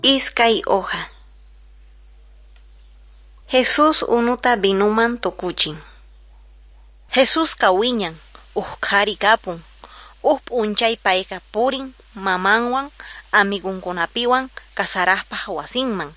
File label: quechua norte de bolivia apolo